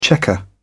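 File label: "checker", British English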